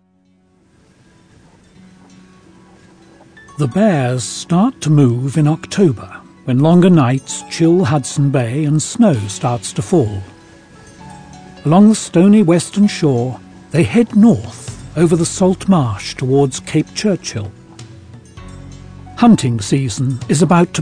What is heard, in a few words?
wildlife doc